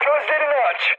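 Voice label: Turkish player friendly fire, mood high, speech; War Thunder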